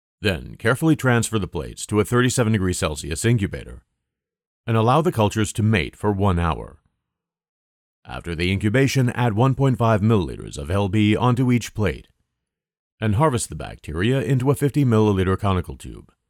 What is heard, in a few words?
Voiceover